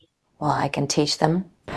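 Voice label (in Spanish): Voice Acting, Spanish, female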